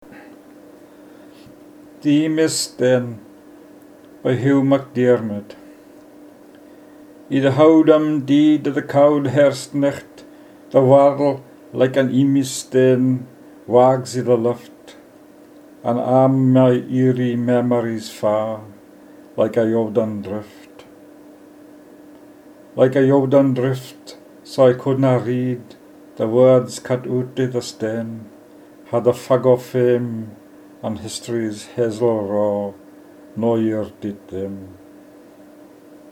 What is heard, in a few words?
Poetry Reading